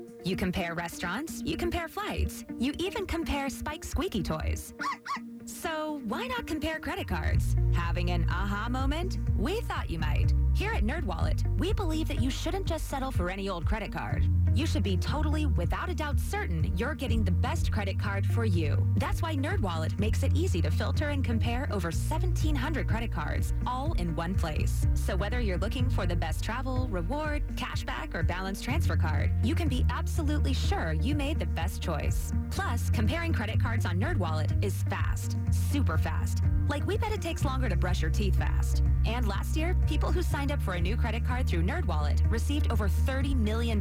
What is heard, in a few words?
Radio Commercial; NerdWallet